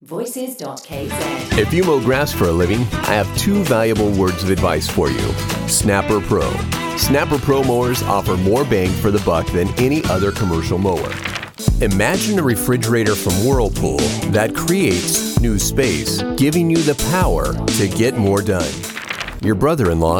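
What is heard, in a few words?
English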